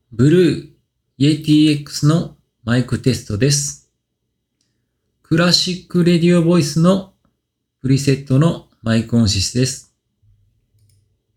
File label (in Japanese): Yeti X クラシックラジオボイス